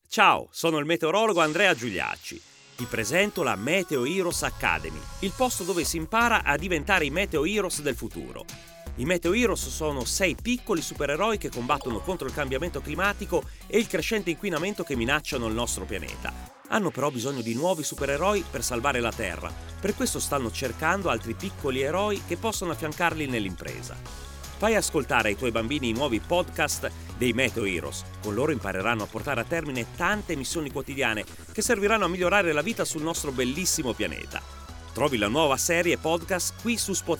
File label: Promo